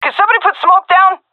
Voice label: request smoke, vo fem; agents; Source Model Packs; Counter Strike Model Pack; CS2